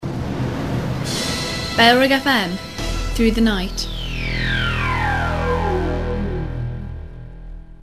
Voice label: female, bed; bfm through the night; bailrigg fm; bfm jingles ads RSL 1994